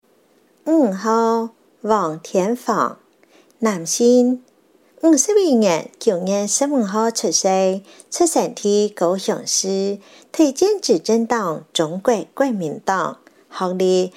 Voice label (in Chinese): 客語, 有聲選舉公報; 高雄市; 高雄市市長議員有聲選舉公報; 第十一選區